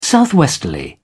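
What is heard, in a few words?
"southwesterly", English, British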